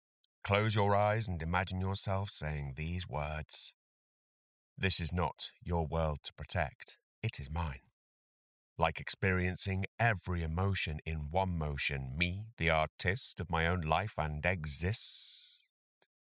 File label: Warm, Voice